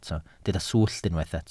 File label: "swllt", welsh